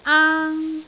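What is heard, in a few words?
"aang1", Cantonese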